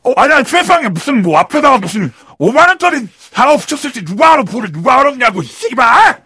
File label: negative vocalization; sniper